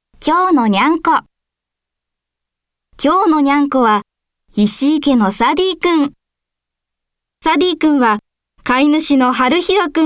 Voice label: narration